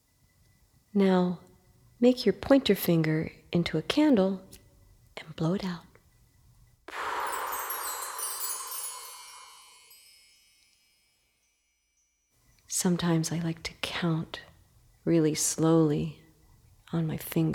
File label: Breathing and Counting Intro to Calm Down